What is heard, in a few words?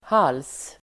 "hals", Swedish